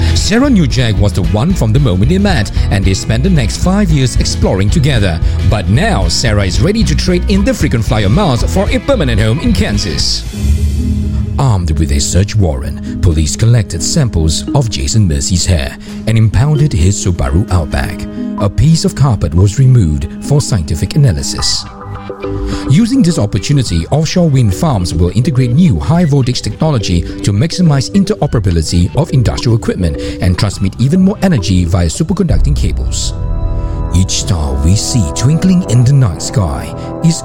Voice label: Narration

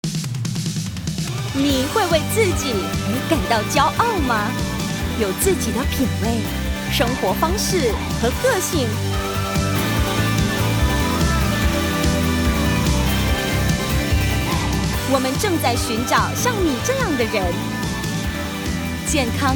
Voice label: MAND

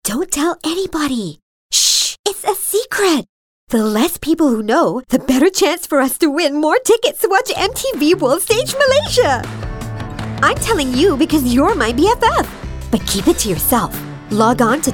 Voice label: ENG younger delivery; SPOTIFY Brickfields Asia College

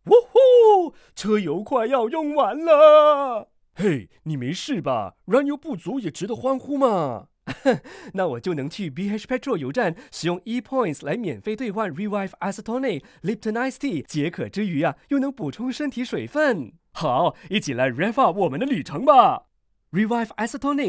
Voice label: Mandarin; Revive

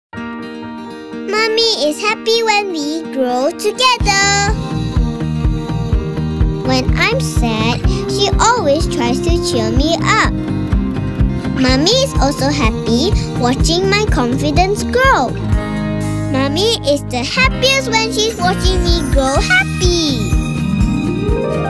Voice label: English; Lactogrow

